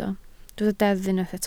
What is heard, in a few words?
"deddf", Welsh